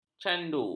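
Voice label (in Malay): "candu", Malay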